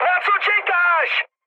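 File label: attack position, Czech, mood high; speech; War Thunder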